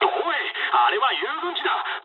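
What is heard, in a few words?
Japanese player killed ally mood low; War Thunder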